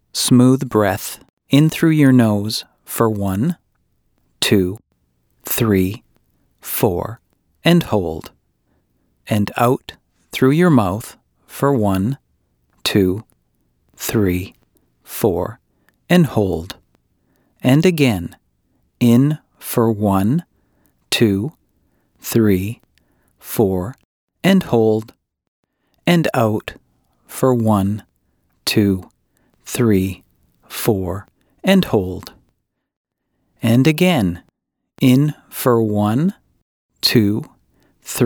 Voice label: Calm Breathing, male; Brief Version